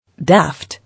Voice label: "Daft", English